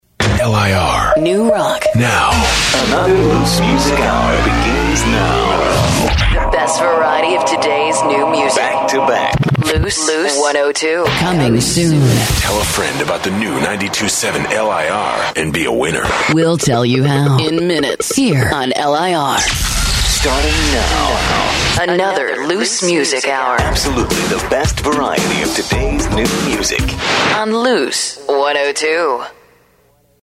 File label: Sweeper montage